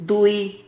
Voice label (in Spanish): "dui", Silabas